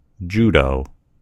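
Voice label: "judo", American English; gcompris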